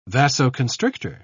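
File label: "vasoconstrictor", British English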